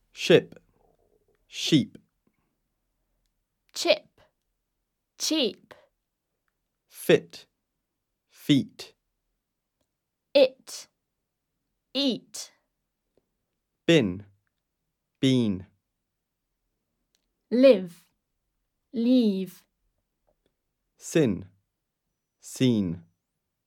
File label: minimal pairs, vowels